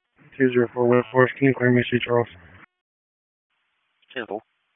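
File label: Police Dispatch; Wake Forest Police Disp; p25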